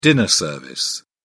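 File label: "dinner service", British English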